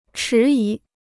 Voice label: "迟疑", Chinese